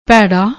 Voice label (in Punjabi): "bhairra", Punjabi; ਭੈੜਾ